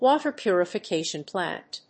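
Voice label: "WATER PURIFICATION PLANT", English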